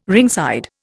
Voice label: "ringside", Indian